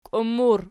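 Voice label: "kiummur" nominative, Archi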